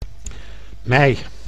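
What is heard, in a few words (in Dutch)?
"mei" Dutch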